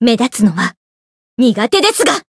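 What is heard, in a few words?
Voice limit break Japanese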